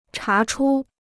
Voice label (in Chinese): "查出", Chinese